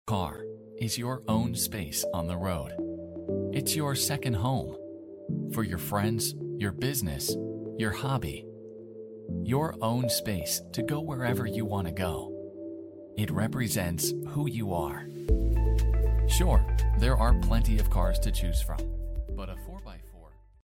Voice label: male, car, english